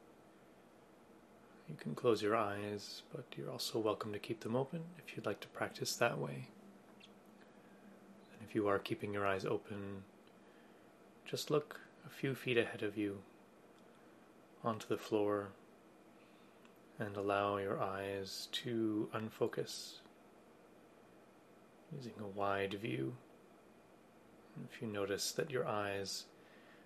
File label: meditation guided breath